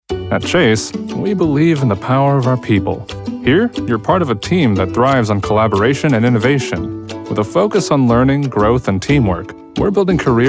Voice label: corporate narration, Professional Male Voice Actor; home studio in Austin